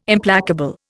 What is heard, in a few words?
"Implacable", Indian